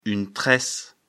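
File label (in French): "tresse", French; afrikalan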